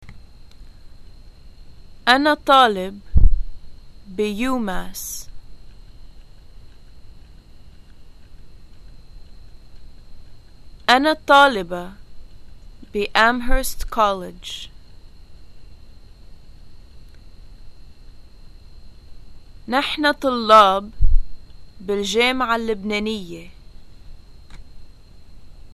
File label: introductions i am a student at, arabic levantine